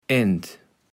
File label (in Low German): "end", kaevels platt